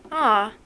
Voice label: gal sad; Classic Cribbage 1.0